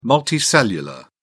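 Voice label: "multicellular", English, British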